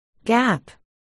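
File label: "gap", spoken word, articulation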